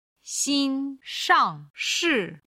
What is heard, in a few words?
"xin shang shi", Chinese